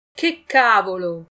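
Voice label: pronunciation it che cavolo, Italian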